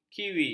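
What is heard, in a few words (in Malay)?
"kiwi", Malay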